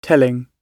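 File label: "telling", British English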